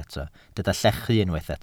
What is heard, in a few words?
"llechu", Welsh